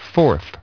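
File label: "fourth", English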